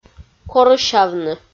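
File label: "корысявны", Komi, female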